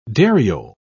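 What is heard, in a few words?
"dariole", British English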